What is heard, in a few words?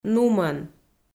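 "нумӧн", Komi, female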